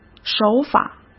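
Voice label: "手法", Mandarin Chinese; hsk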